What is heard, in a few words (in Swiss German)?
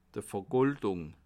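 "vergoldung", Bas Rhin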